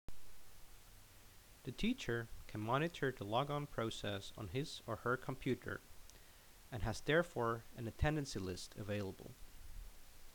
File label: Narration